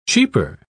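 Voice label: "cheeper", British English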